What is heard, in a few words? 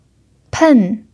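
"pen" fourth tone, chinese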